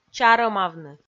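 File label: "чарӧмавны", Komi, female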